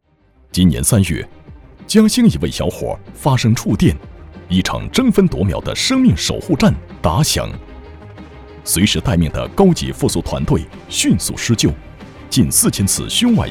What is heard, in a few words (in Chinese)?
男 紧张专题感 抢救病人